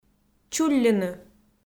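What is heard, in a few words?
"чулльыны", Komi, female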